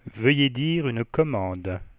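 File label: "please say a command", French; Samsung SGH-i310; Voice Sounds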